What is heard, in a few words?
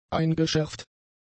"eingeschärft", German; adjectives